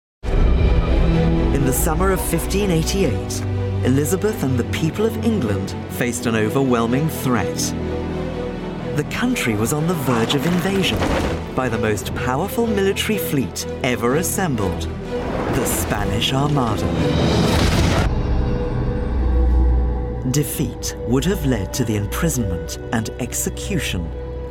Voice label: documentary, voiceover

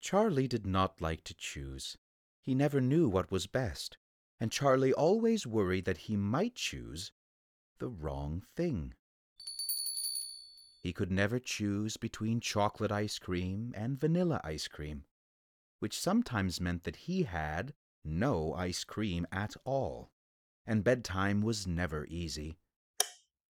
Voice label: childrens audiobook reel, voiceover

